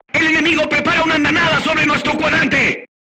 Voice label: voice message art shooting warning, crew tanks spanish